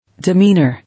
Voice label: "Demeanor", English